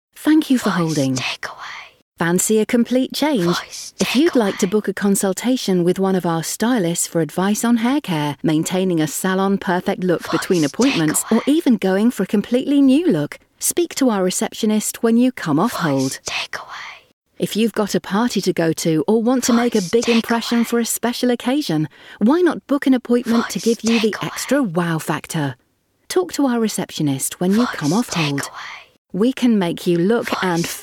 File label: Hairdressers Smooth Female